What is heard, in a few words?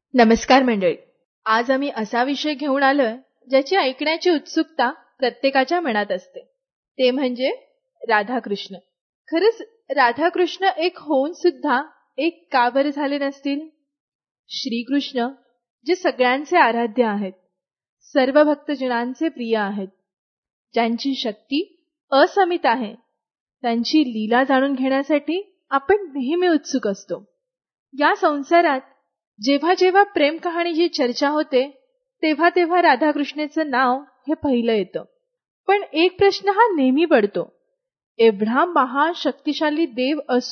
Female Marathi VO Sample